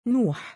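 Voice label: "nuu7", Arabic, male; given name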